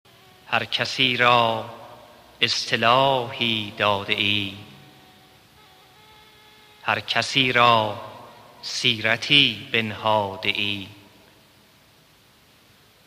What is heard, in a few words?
Sazo Avaz (Deklameh)